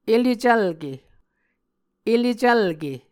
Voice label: "elecvlke", muscogee

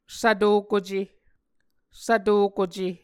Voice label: "svtokuce", Muscogee

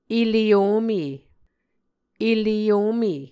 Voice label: "ele ome", muscogee

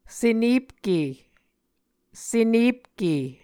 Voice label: "senepke", Muscogee

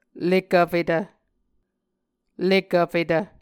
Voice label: "lekvfetv", Muscogee

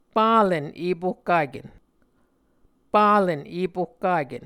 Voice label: "palen epohkaken", Muscogee

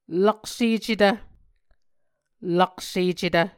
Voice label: "laksecetv", Muscogee

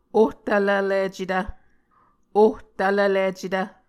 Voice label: "ohtvlvlicetv", Muscogee

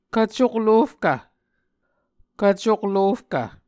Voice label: "kvcoklofkv", Muscogee

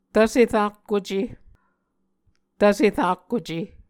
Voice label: "tvse rakkuce", Muscogee

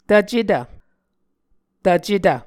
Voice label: "tvcetv", Muscogee

